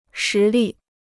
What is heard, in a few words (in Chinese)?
"实力", Chinese